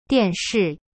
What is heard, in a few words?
"dian4shi4", Chinese; cyberchinese